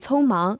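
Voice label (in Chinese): "匆忙" Chinese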